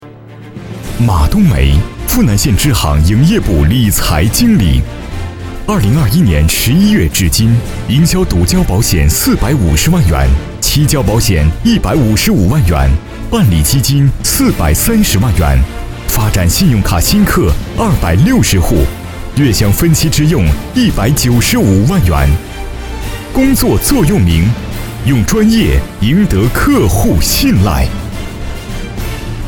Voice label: 男, 颁奖

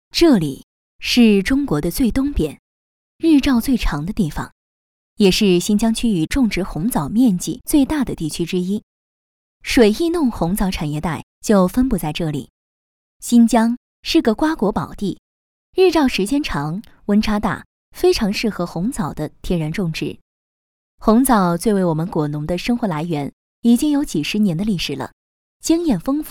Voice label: 大气广告 红枣产业带

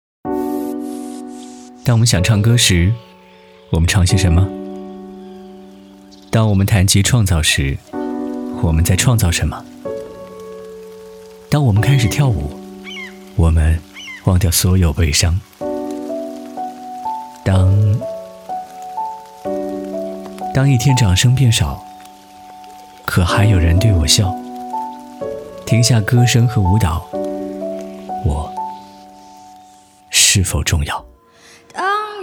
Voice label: 男,旁白独白 伤感自述; 当我们想唱歌时

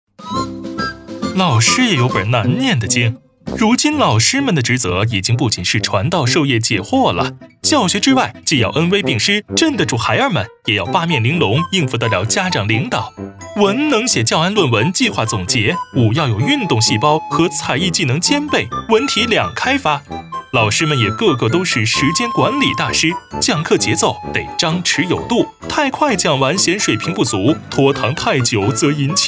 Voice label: 男 中文; 飞碟说

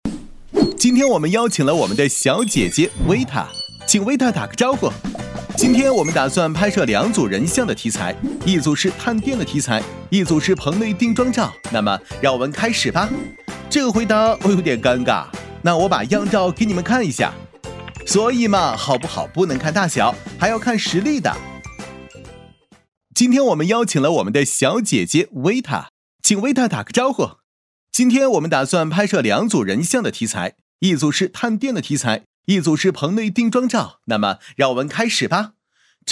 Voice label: 男 电视节目 娱乐节目旁白

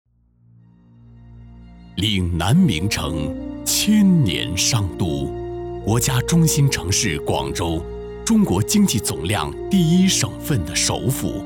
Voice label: 粤男 专题; 广州

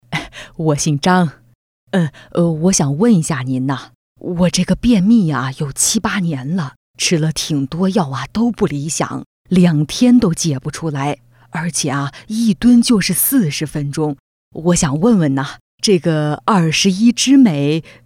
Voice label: 女 角色 老年 女

